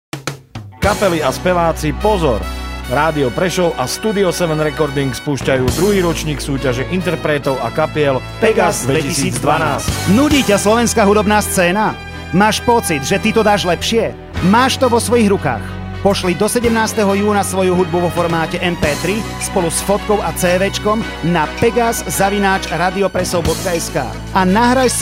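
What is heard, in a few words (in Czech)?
reklami spot; pegas2012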